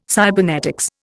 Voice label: "cybernetics", Indian